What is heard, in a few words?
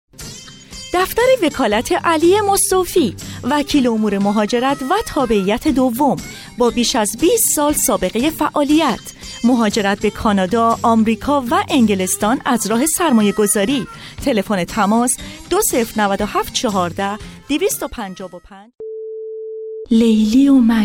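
farsi female narration; voice demos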